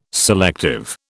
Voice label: "selective", British